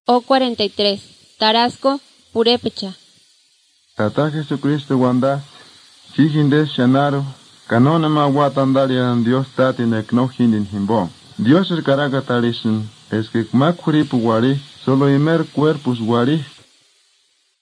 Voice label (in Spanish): spanish mexico